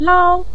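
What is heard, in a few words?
"lao" neutral tone, Mandarin Chinese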